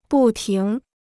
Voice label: "不停", Chinese

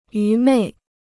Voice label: "愚昧", Chinese

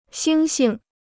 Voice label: "猩猩", Chinese

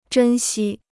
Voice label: "珍惜", Chinese

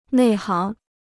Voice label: "内行", Chinese

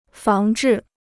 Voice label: "防治", Chinese

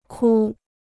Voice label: "哭", Chinese

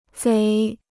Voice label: "贼", Chinese

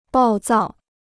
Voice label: "暴躁", Chinese

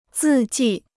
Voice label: "字迹", Chinese

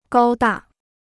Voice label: "高大", Chinese

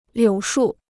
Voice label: "柳树", Chinese

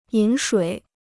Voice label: "饮水", Chinese

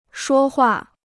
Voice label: "说话", Chinese

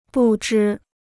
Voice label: "不知", Chinese

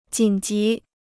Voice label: "紧急", Chinese

